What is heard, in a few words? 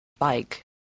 "bike", spelling